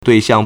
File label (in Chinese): "dui4xiang4", Chinese